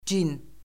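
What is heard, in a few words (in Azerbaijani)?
"cin", Azerbaijani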